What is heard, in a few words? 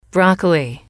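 "broccoli", english, female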